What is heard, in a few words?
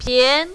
"pian2", mandarin, female